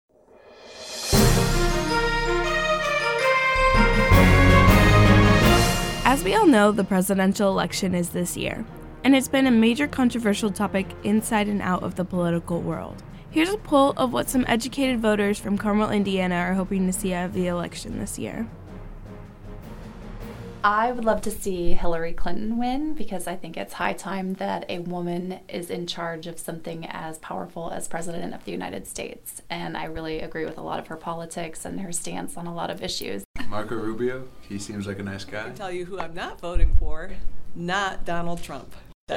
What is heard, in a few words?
VOXPOP